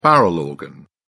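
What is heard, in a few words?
"barrel organ", British English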